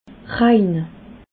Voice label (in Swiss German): "rein", alsace